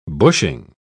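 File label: "bushing", British English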